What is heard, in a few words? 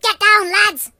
Take cover, Pirate voices; hedgewars